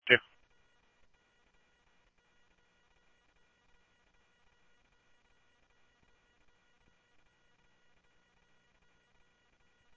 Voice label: Police, scanner

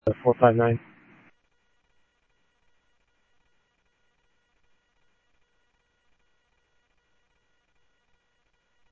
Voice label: Sheriff-WestCnty, scanner audio

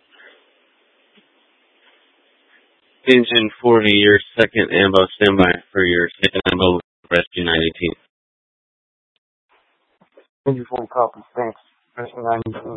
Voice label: Phx PD Fire Dispatch; K9 - FDCOM 9 - West; Phx Fire and Public Works; RWC

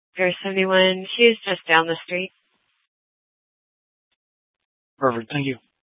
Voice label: Superstition Dispatch, Mesa PD

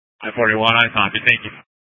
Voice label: Sheriff, Maricopa County P25; Shrf Lakes

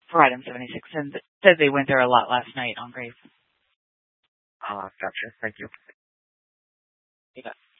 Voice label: Dispatch, scanner

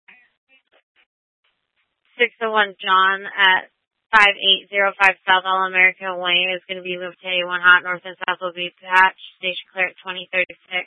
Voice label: Tempe PD, scanner; Rgnl Wireless Co-op (RWC)

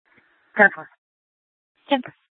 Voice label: Police South Dispatch; Regional Wrlss Cooperative (RWC); Scttsdle Police

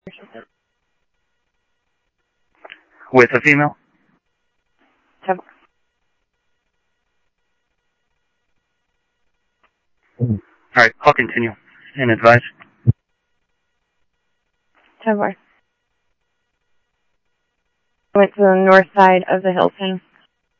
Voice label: police, scanner audio

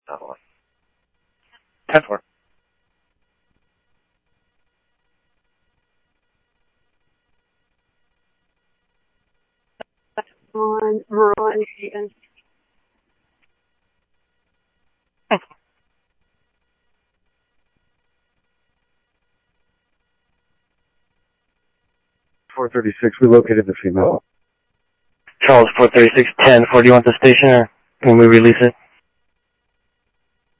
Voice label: Scottsdale Police, scanner; ThompsonPeakHigh; SCTSDLE PD - PD2

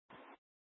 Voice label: Fire Departments, scanner

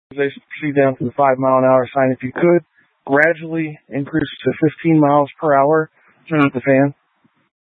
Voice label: Light Rail Emergency, scanner

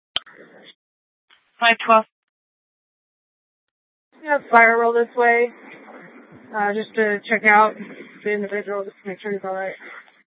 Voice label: Sheriff, scanner P25

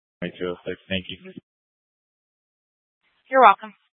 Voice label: Fire Departments Mesa Simulcast; TOPAZ

